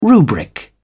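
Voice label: "rubric", English, British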